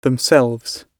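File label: "themselves", British English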